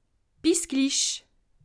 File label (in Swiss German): "bis glich", alsace